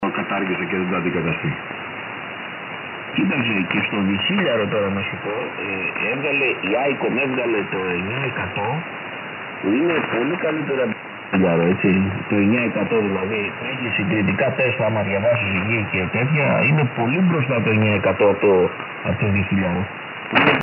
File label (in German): LSB Griechische Funkamateure; 3430khz